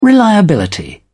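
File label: "reliability", British English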